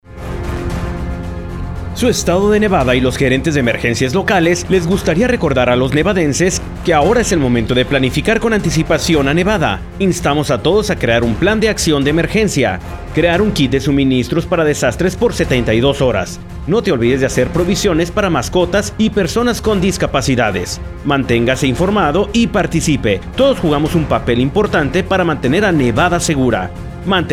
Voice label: December Spanish Radio